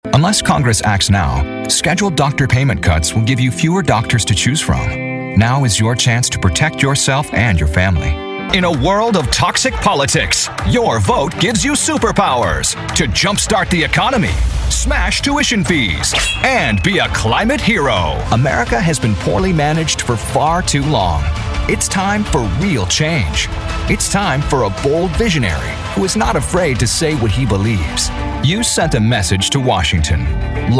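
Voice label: political, American Male Voice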